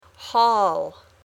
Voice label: "hall", English